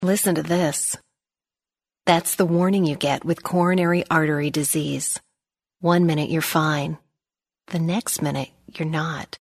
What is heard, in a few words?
Commercial Public Service Announcement Serious